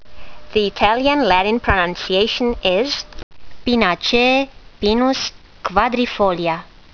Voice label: latin; Pinus quadrifolia